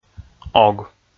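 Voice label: "ог", Komi, male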